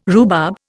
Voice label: "rhubarb", Indian